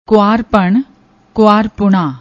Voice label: "kuaarpan", Punjabi